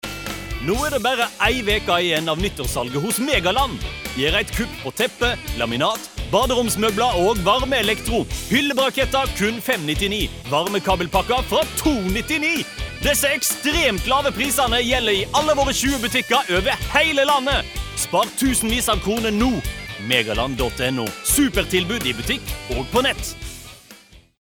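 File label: Norwegian hard sell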